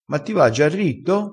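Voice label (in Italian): "nda ritto", dialettale crespinese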